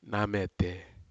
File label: "namete", Aneityum; bushes